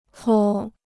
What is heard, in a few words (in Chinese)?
"搓", Chinese